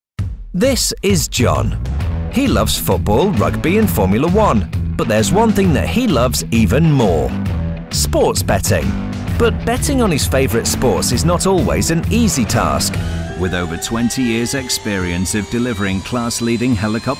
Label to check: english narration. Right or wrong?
Wrong